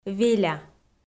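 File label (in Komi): "веля", Komi, female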